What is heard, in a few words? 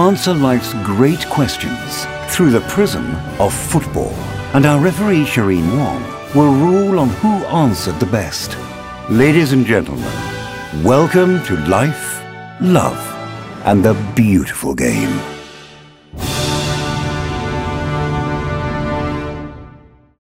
UK English Podcast Opening Voice; Male